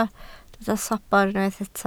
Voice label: "sobr", Welsh